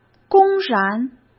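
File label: "公然", Mandarin Chinese; chinese flashcards; hsk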